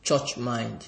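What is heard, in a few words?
"church mind", Nigerian Pidgin, male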